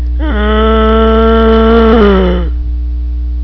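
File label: zombie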